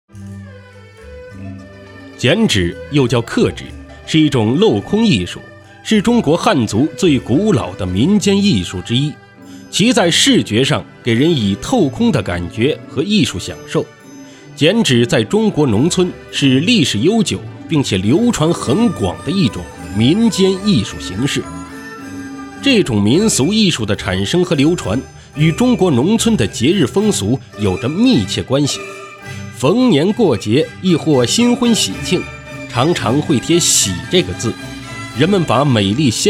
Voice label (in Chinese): 男, 记录, 文化, 轻快讲述